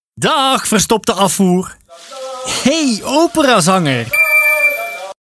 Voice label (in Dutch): Verstopte Afvoer Commercial